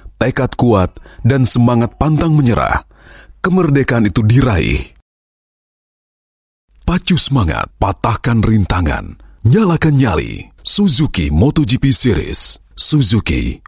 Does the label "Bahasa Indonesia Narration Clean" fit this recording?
Yes